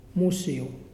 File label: smoke, Muyuw; Lae Unitech